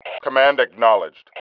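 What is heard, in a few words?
command acknowledge, male; cmdacknowledge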